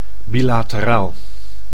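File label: "bilateraal", Dutch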